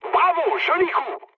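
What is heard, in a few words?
French player killed enemy mood med; War Thunder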